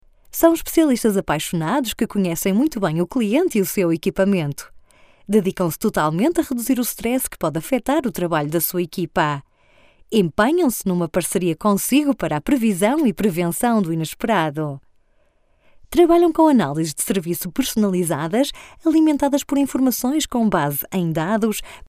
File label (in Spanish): locutora portuguesa portuguese voiceover